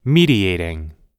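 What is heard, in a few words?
"mediating", American English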